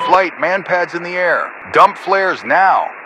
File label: Radio pilot Warning MANPADS